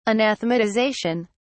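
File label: "anathematization", English, British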